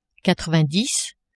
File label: "quatre vingt dix", French; nombres